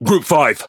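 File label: Pirate Speech Pilots Torpedo, English pirate; World Of Warships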